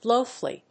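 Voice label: "blowfly", English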